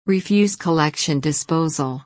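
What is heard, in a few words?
"refuse collection disposal", American English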